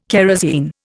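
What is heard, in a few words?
"kerosine", Indian English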